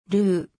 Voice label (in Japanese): "る", 日本語; 基礎日語五十音聽力